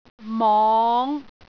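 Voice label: "mong5", Cantonese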